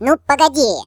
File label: Just you wait, Default ru; hedgewars